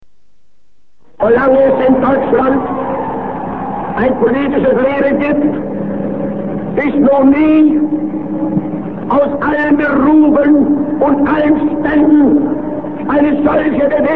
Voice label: Public Election Speech Berlin; Adolf Hitler; Berlin Lustfarten